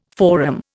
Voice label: "forum", Indian